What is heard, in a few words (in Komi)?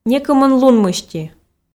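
некымын лун мысти, Komi, female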